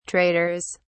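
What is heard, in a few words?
"traders", British English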